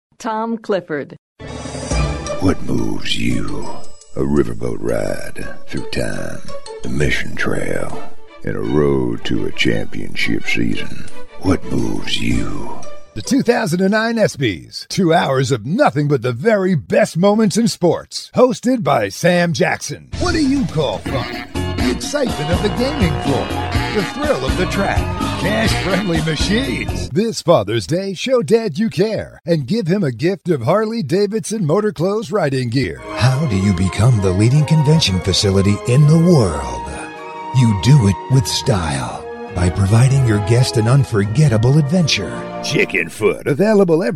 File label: Vo Varied Styles